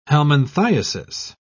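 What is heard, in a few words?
"helminthiasis", British English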